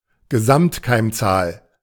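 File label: "Gesamtkeimzahl", German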